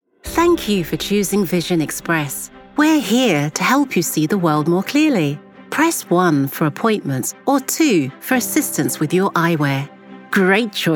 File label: on hold ivr